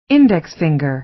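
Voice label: "index finger", American English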